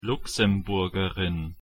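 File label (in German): "luxemburgerin", German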